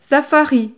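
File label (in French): "safari", French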